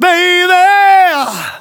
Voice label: Phrase Male; Vengeance Samples

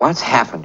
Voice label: LoFi Phrases, LoFi Vocals; Vengeance Samples

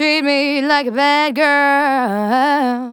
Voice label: Phrase Female; Vengeance Samples; Phrases

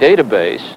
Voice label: LoFi Words, LoFi Vocals

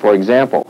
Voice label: LoFi Phrases, LoFi Vocals; Vengeance Samples

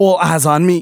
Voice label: Phrase Male Rap; Vengeance Samples

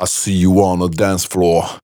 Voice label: Phrase Pitched; Vengeance Samples

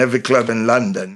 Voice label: LoFi Phrases, LoFi Vocals; Vengeance Samples

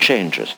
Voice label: LoFi Words, LoFi Vocals

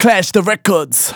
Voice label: Phrase Male Rap; Vengeance Samples

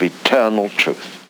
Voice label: LoFi Words, LoFi Vocals

